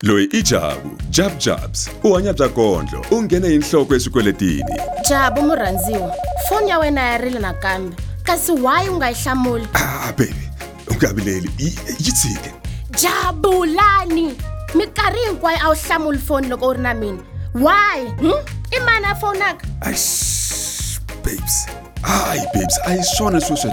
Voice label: Radio Advert Xitsonga; NCR Budget Save